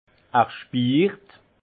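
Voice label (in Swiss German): "spiirt", alsace Haut-Rhin; vin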